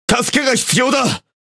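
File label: Speech Quick Commands Need Support, Japanese; World Of Warships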